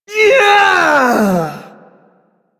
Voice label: Ability Throw, Barks; Warframe; Corpus Lich; Persona C

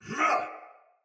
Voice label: Pain Soldier Sword Agent Male; Battle; Sinecure Melee

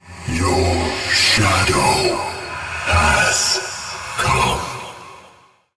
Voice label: Ethereal Voice; Cinematic; Warframe; Wraith Quest; Mission Four

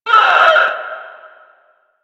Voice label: Enemy Pain; Warframe; Lasrian; Lasrian Enemy C

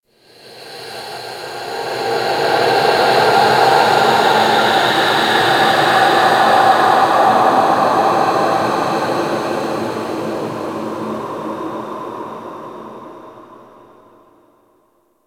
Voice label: Whisper; Warframe; Orokin; Ambience; Interior

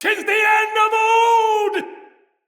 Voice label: Turned Tides Afar, Soldier Male; Dendrit

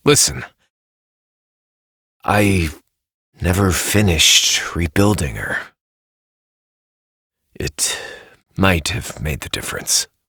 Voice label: Adult Op, en; Warframe; New War

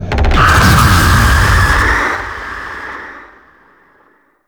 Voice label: Sentient Vessel Pain; Warframe; Tau

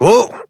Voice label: Horse Stop Walk Adult; Duviri

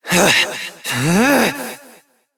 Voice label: Frustration; Battle Barks; Entrati Doppelganger; Evil Twin B; Warframe

